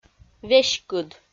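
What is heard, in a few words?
"веськыд", Komi, female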